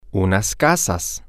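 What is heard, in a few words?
male, spanish; some Houses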